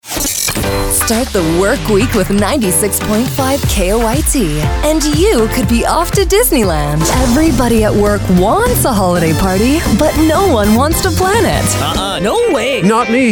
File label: radio women ac; radio imaging